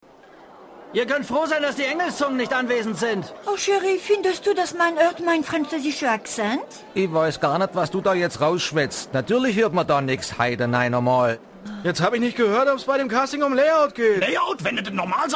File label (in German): Synchron, maenner